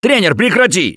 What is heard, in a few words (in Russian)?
friendly fire, left4dead2 russian, Survivor Voice; coach; Gambler